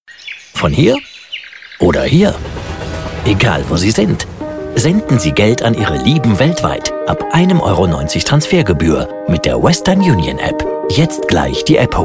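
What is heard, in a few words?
M, German, male, commercial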